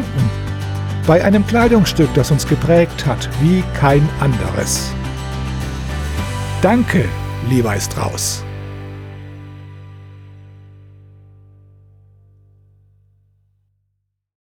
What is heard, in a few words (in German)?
Werbung Jeans